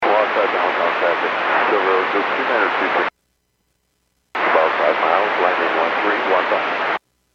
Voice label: Aviation 122.7; KSTE

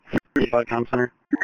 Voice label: Police Sheriff Dispatch

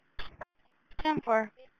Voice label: Police Sheriff Dispatch

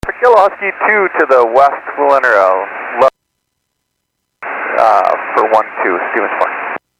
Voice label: Airports 122.7, Radio; KSTE

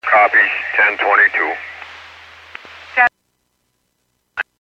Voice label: Fire Dispatch

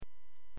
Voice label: Aviation 121.5 Emergency

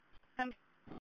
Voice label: Police Sheriff Dispatch

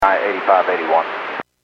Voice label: Airports 121.5 Emergency, Radio

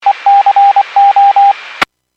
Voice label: Skywarn 146.67; Stevens Point

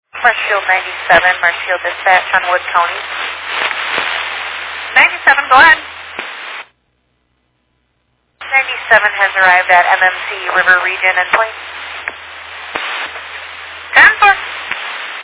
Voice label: Fire Dispatch

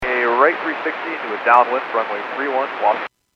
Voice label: Airports 122.7, Radio; KSTE

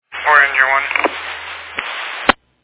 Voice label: Fire Dispatch

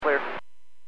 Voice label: Aviation 121.5 Emergency

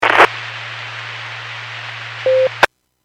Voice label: Skywarn 146.67; Stevens Point

